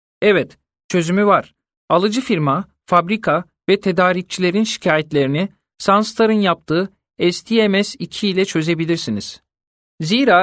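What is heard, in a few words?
Turkish, male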